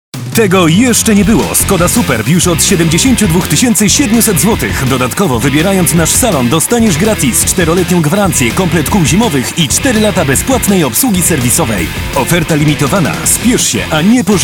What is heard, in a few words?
Male VO Commercial